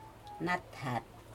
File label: "wall", Aneityum